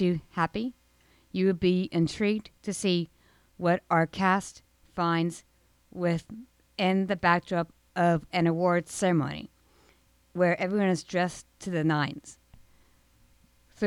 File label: promo for the play; Camphill Play